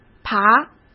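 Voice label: "爬", Mandarin Chinese; chinese flashcards; hsk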